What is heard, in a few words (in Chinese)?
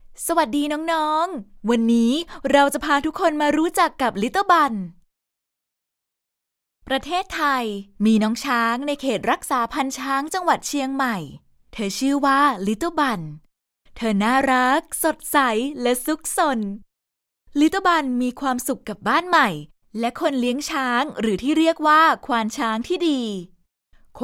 外籍 泰语